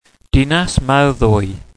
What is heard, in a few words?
"dinas mawddwy", wales